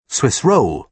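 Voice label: "swiss roll", British English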